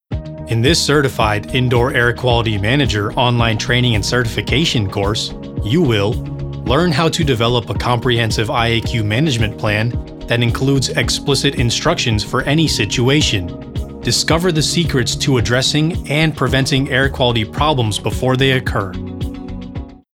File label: E-Learning FORMAL